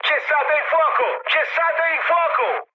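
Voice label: Italian player friendly fire mood med, speech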